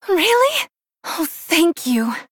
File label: Human Female